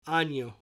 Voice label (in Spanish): "ano" year